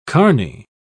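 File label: "carny", British English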